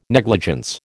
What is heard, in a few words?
"negligence", American